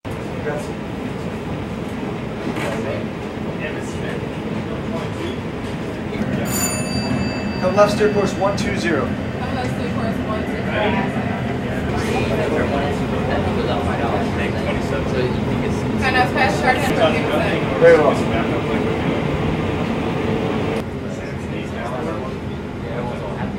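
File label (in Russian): волнительный разговор военных на военном корабле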